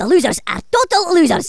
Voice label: "Victory", Angry Scots; Worms Armageddon